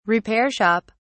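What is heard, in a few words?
"repair shop", English, British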